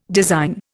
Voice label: "design", Indian